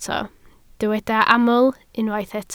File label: "aml", Welsh